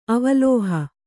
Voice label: "ಅವಲೋಹ", Kannada; avalōha; noun